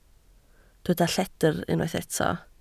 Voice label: "lledr", Welsh